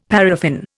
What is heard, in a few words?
"paraffin", Indian; Dictionary